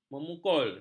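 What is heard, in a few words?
"memukul", Malay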